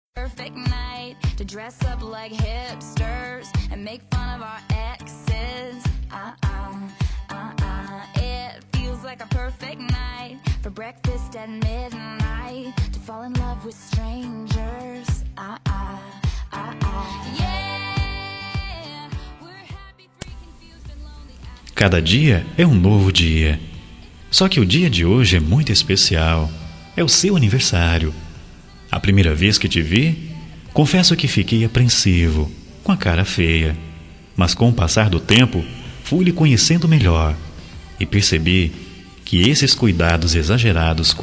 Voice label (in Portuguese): Aniversario Genro Masculino